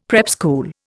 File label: "prep school", Indian; Dictionary